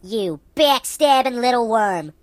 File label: "Traitor", Mobster; HedgewarsMobile